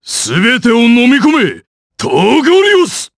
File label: Vox Skill jp; kingsraid